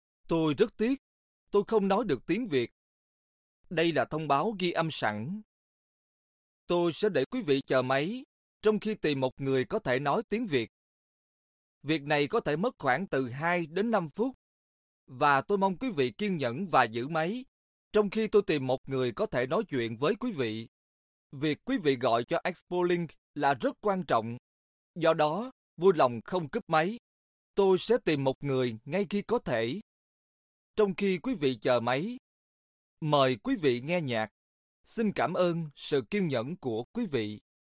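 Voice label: Vietnamese, IVR; GoLocalise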